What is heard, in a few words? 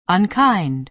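"unkind", American English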